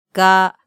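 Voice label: "ga", Japanese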